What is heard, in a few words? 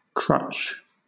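"crutch", British English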